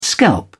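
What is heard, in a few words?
"scalp", British English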